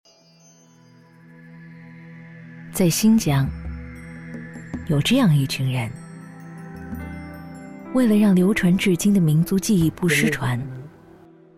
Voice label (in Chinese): 女 纪录片旁白